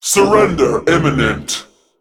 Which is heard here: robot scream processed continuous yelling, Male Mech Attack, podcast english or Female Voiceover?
Male Mech Attack